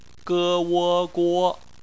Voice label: "guo", Chinese; phonetics; bpmf